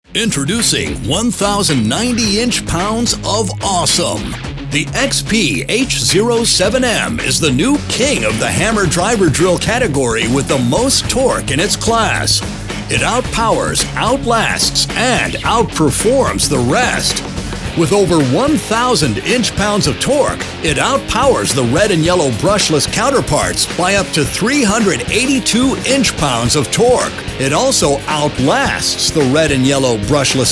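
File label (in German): TV Werbespots Jugendfrei, English US; NATIVE PROFISPRECHER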